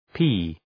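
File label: "pee", English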